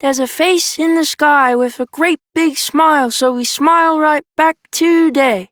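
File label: Child Rhymes Happy Child Male; Duviri; Childrens Rhymes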